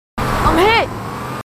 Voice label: marines female, marine tough

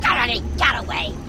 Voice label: grunt; h3dialogue; staten

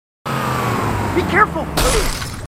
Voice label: marines, marine timid; h2dialogue

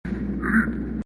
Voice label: taunt agressive

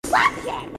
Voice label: watch it, grunts

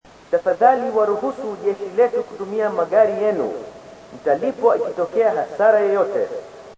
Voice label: swahili; h2dialogue

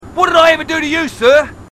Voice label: marines, aussie; h2dialogue

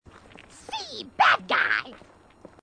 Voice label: grunt; h2dialogue; grunt crazy

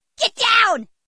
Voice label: get down, grunts

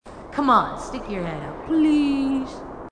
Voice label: female marine, marine tough

